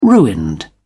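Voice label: "ruined", English, British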